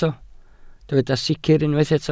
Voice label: "sicr", Welsh

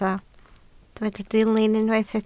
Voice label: "dilyn", Welsh

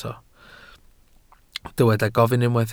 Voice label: "gofyn", Welsh

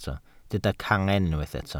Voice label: "cangen", Welsh

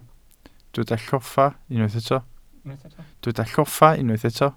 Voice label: "lloffa", Welsh

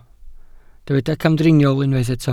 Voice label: "camdriniol", Welsh

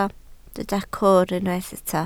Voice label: "cwr", Welsh

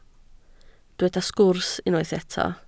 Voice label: "sgwrs", Welsh

